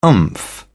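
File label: "oomph", British English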